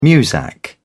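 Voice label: "muzak", British English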